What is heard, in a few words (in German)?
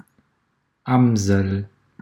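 "Amsel", German; Wo hörst du E,e?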